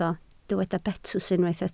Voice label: "betws", Welsh

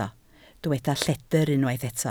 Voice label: "lledr", Welsh

